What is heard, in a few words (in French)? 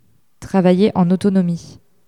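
"travailler en autonomie" French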